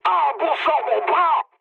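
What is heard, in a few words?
French damaged pilot mood med; War Thunder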